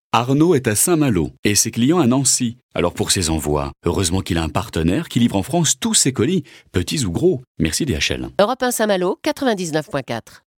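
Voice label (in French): PUB, voix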